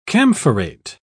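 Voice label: "camphorate", British English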